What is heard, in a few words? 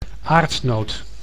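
"aardnoot", Dutch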